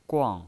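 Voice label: "guang", Mandarin Chinese, fourth tone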